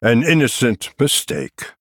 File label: Male Night Elf Oops